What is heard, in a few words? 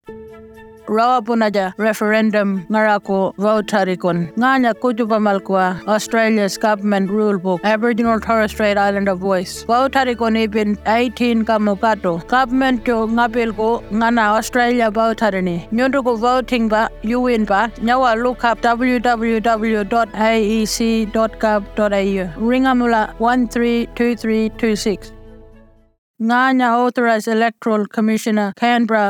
Martu Wangka; referendums; Completing the ballot paper